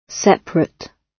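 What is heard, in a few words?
"separate", British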